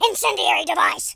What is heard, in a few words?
"grenade", War General; speech